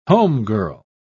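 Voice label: "homegirl", British English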